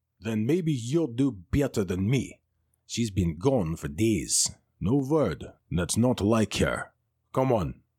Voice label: Mason and builder NPC with practical expertise and grounded presence, Audiobook NPC; Burnside